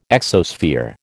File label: "exosphere", American